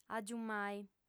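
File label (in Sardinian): "agiumai", lingua sarda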